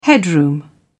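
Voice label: "headroom", British English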